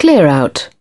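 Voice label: "clear", British English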